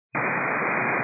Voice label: 122 925MHz, scanner radio